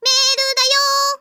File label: mail, Anime Girls; Windows; Bing Japan; Nana